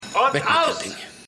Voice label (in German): Aufnahmeleiter, Stimmproben